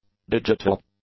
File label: "digital", english; adjectives